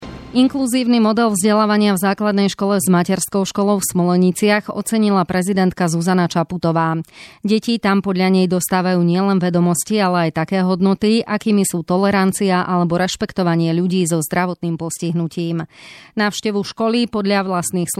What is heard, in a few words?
voice, zensky hlas voice over